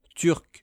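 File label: "turque", French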